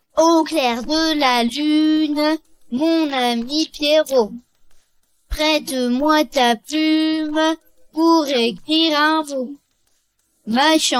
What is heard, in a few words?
vocal, rap